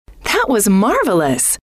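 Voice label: that was marvelous, female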